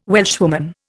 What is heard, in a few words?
"Welshwoman", Indian